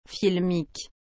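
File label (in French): "filmique", French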